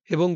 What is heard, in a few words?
Male